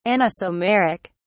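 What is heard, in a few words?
"anisomeric", British English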